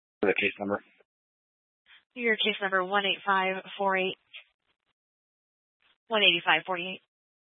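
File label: Police Dispatch, Scanner audio; Police 1 Dispatch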